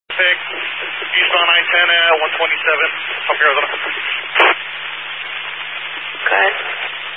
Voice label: Highway Patrol, Phoenix Metro West Area, scanner

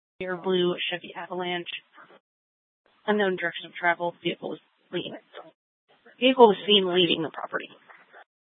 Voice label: PD Dispatch

